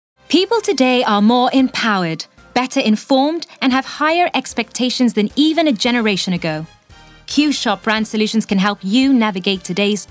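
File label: British English, narration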